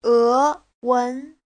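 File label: "Éwén", Mandarin Chinese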